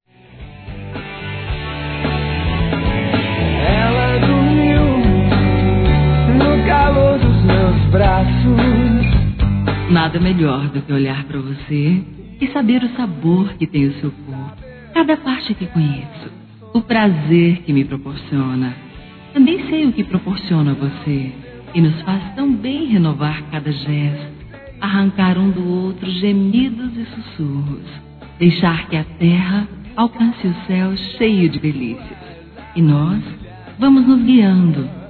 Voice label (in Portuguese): Erótica Feminino; Telemensagens; Sensuais